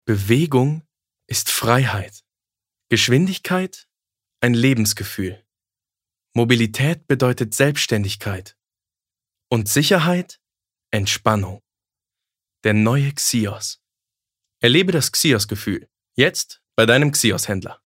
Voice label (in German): Sanft; Xios